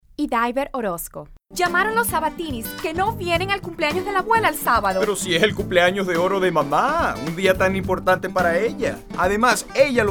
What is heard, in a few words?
Spanish